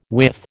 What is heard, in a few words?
"with", American